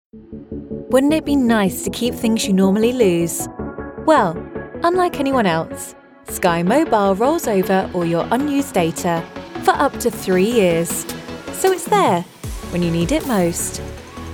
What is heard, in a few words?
Commercial Reel